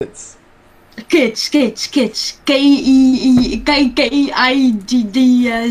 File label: eggie stutter kids